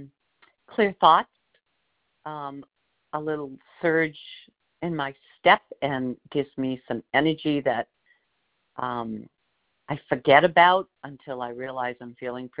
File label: Audio Testimonial B12 Patch